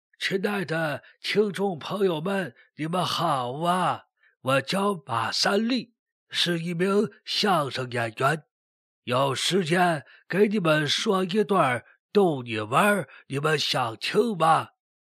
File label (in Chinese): 【模仿】男-老人; 马三立模仿